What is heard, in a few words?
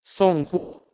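"songwh", Proto-Indo-European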